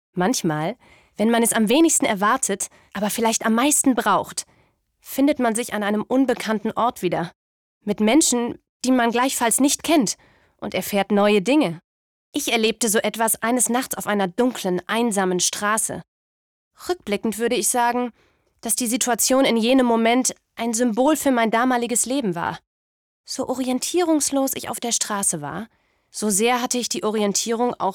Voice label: CASTING Erzaehlung; LOFT VOICES

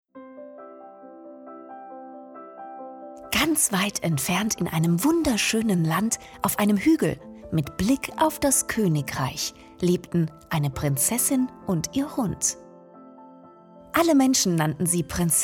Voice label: Hörbuch; LOFT VOICES

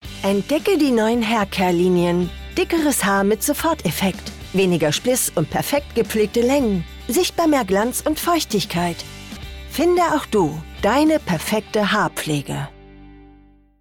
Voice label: Werbung Beauty Frau

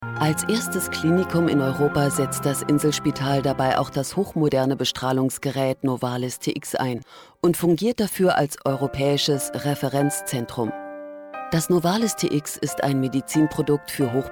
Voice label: kommentar

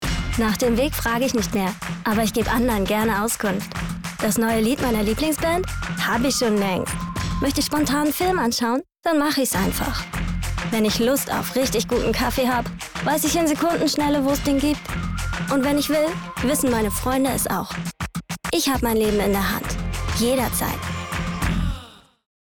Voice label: teenie

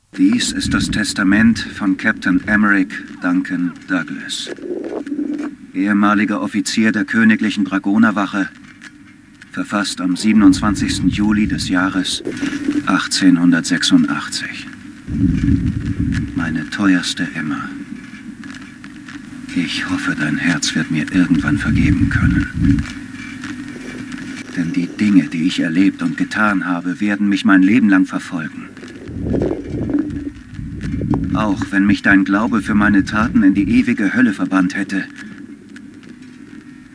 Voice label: aufgewuehlt; the order

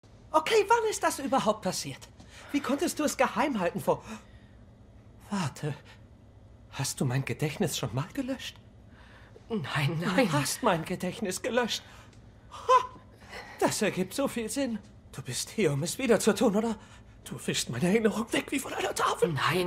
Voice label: Comedy Realisierung Angst

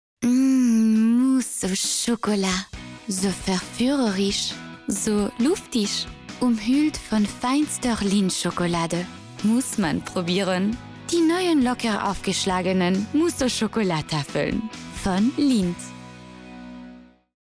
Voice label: Werbung DE Akzent FR; Lindt

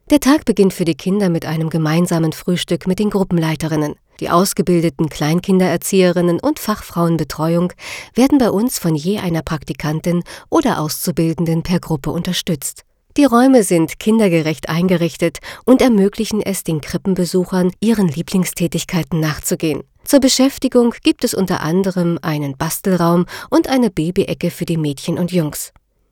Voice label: kinder; LOFT VOICES

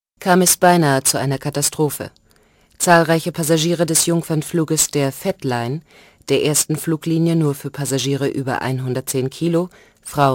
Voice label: Nachrichten; Adipoes

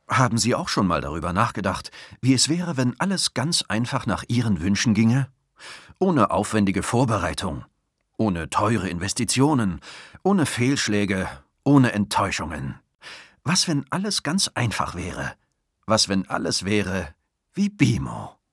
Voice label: werbung vertrauensvoll

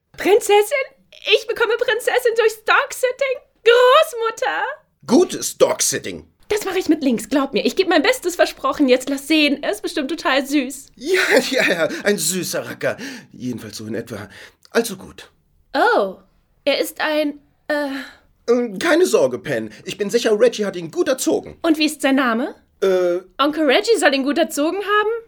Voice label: Animation Trickstimme

